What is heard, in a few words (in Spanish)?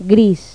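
"gris", Spanish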